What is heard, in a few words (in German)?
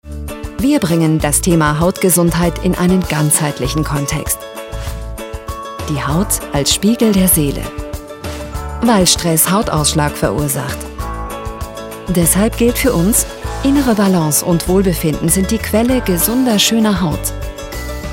Werbung warm charmant; NOVARTIS Fenistil